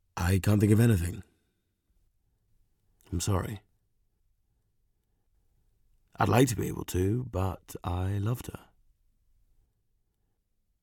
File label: Drama